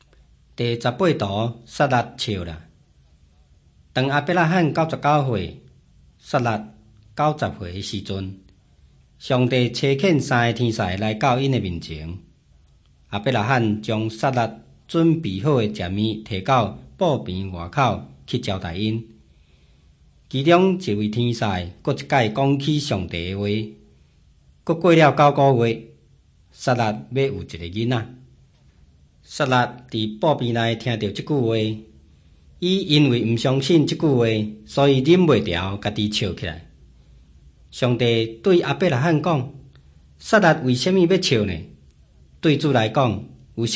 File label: taiwanese, drama; beginning with god; hokkien; taiwanese min nan todays taiwanese version; bible